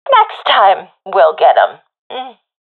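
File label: round lost, professional female; vo; agents; Source Model Packs; Counter Strike Model Pack; CS2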